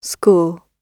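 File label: "score", British English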